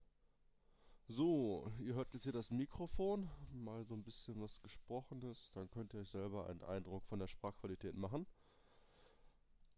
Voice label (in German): Aufnahme Turtle Beach Elite Atlas